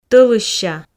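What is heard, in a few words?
"тӧлысся", Komi, female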